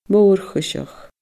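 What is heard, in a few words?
"mórchuiseach", Scottish Gaelic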